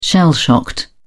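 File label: "shell shocked", British English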